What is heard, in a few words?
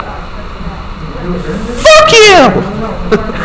coprolalia